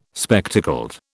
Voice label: "spectacled", British

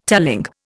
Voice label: "telling", Indian

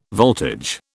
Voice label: "voltage", British

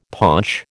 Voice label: "paunch", American

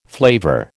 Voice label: "flavour", American

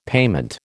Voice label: "payment", American

